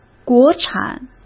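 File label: "国产", Mandarin Chinese; chinese flashcards; hsk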